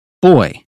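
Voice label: "boy", British phonetics; 英语音标发音（剑桥版）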